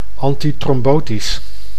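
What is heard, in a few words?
"antitrombotisch", Dutch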